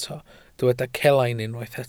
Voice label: "celain", Welsh